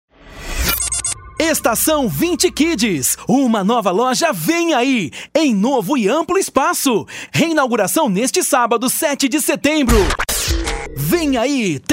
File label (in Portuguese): locutor